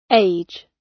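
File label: "age", British English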